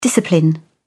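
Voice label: "discipline", English, British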